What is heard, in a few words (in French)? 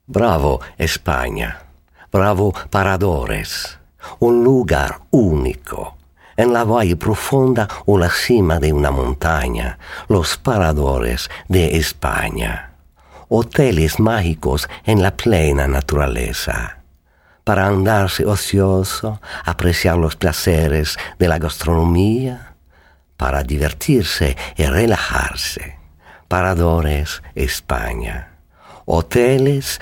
Voix espagnol